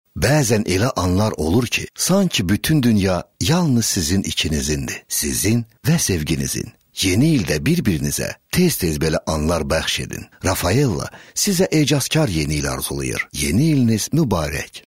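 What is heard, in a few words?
Azerbaijani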